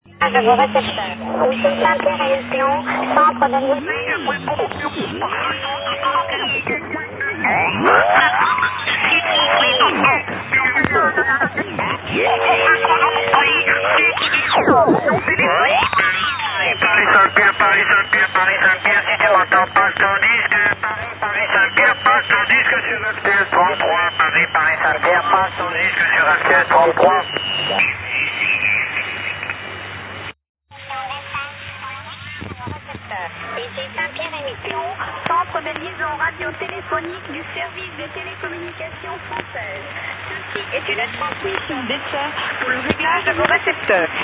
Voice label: usb